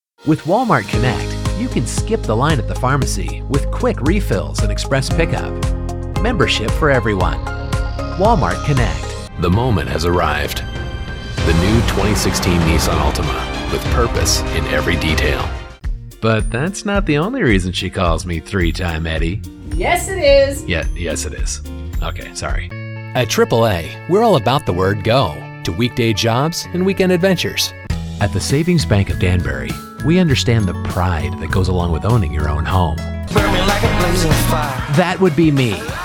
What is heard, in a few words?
commercial